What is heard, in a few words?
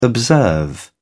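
"observe", British English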